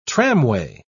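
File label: "tramway", British English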